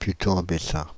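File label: "Putot-en-Bessin", French, Paris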